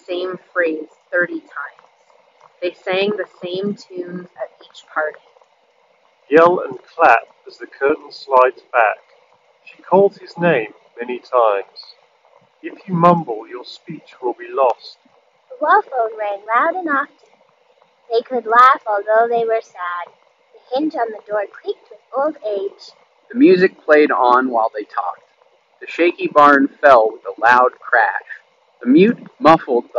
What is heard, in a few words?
Razer Hammerhead Pro Hyperspeed BT Wind microphone sample